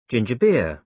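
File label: "ginger beer", British English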